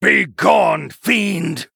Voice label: Male Human; Story Hero Uther; Story Uther Mission2 Intro